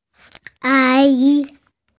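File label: "a1 yi2", Mandarin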